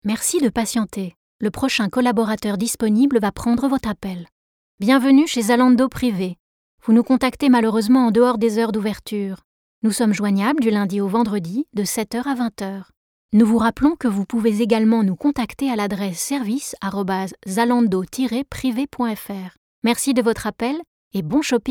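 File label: French telephone; sprecher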